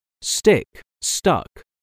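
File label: "stick", English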